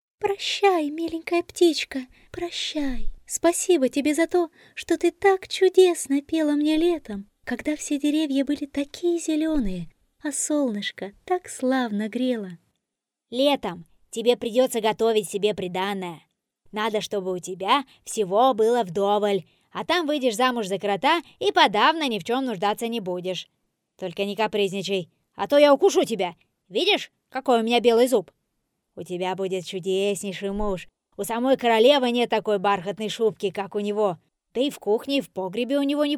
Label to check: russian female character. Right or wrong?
Right